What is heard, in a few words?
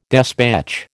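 "despatch", American English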